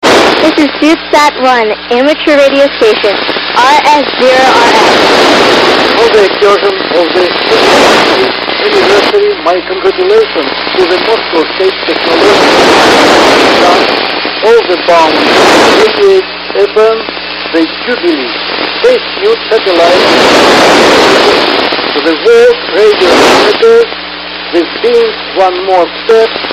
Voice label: voice message; SUITSAT-1